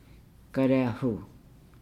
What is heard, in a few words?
"cheek", Nukuoro